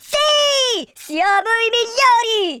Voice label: victory, Italian